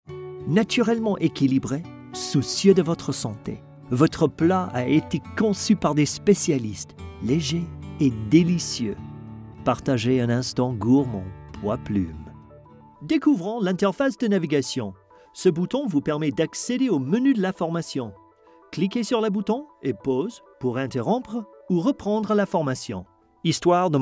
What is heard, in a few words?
French